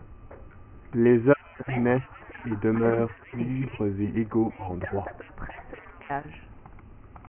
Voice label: long example separated french